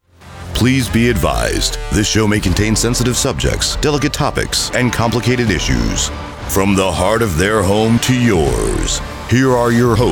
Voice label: Podcast